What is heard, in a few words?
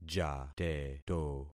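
"jateto", concatenated syllables; Affixing experiment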